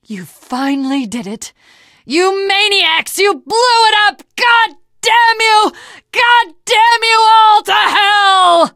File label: teengirl, voice; Left 4 Dead 2; l4d1 finale